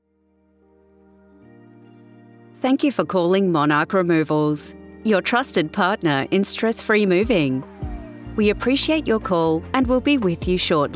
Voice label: english australian ivr